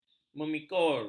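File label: "memikul", Malay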